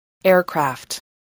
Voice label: "aircraft", English